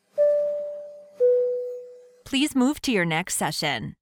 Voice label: airplane chime please move to your next session